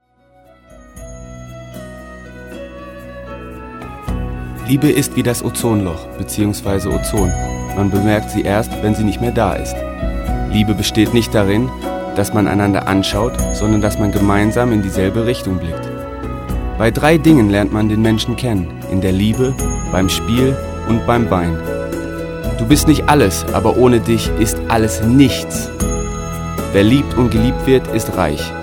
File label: german boy